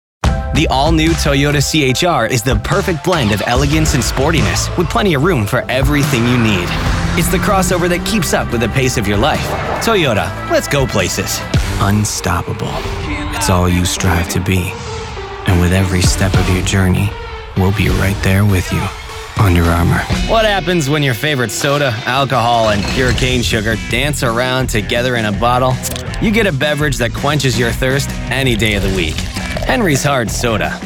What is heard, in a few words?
Commercial